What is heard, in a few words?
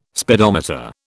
"speedometer", British